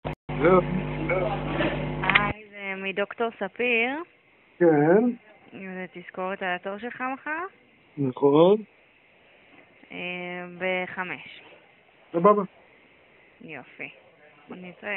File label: call recording